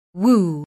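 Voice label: "woo", English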